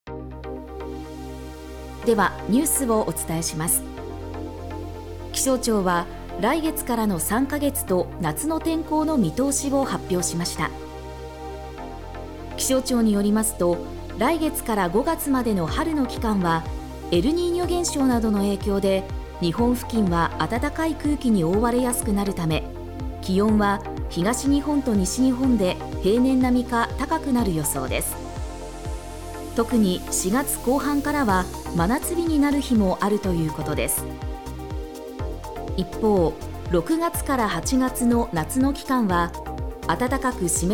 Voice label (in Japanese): ニュース